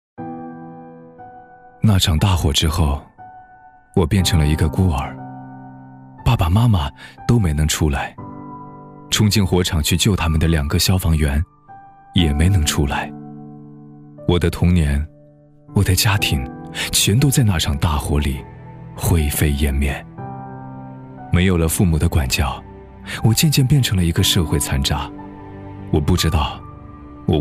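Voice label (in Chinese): 男国大音-微电影-旁白; 消防员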